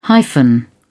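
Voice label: "hyphen", British English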